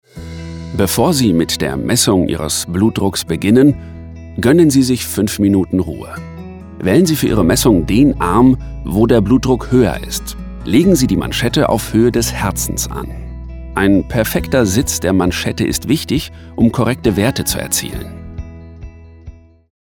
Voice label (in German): produktvideo kompetent glaubwuerdig musik